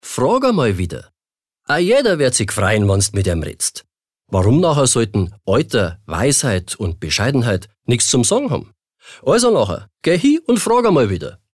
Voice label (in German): Dialekt Bayerisch